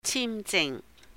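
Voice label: "chìm jing", Cantonese; visa; 簽証; holidays and festivals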